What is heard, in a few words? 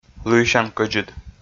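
"лыйсян кӧдзыд", Komi, male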